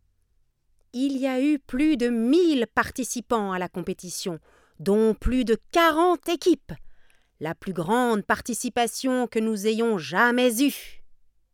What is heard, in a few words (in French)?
robots fr; marmite